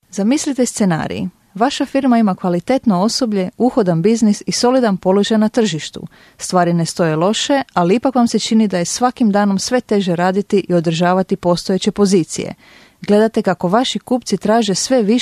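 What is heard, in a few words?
Serbian Croatian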